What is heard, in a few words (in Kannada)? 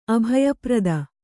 "ಅಭಯಪ್ರದ", Kannada